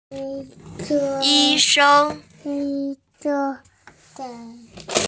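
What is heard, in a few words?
"yi1sheng1", Mandarin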